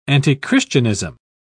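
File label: "antichristianism", English, British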